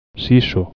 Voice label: good sense, galician